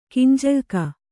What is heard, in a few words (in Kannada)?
"ಗಂಜಳಿಕೆ", Kannada